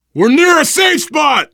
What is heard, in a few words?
safe spot ahead, voice, biker; Left 4 Dead 2; survivor